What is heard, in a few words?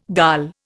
"dal", Indian